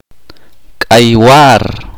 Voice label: "cantar", chorti